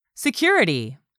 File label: "SECURITY", english; 3000 words